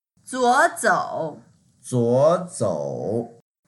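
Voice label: "zuo3 zou3", Mandarin Chinese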